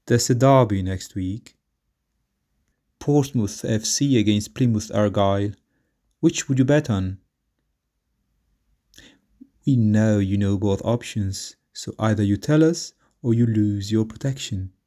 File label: British